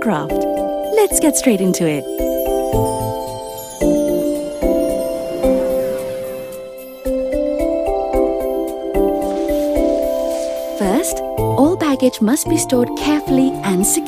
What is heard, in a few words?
Eng In-Flight Safety read; Malaysia Airlines